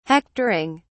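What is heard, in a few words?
"hectoring", British English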